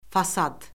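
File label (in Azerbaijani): "fasad", Azerbaijani; Orfoqrafiya Lüğəti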